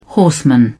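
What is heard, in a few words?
"horseman", British English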